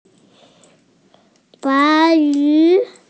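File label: "white cloud", Mandarin Chinese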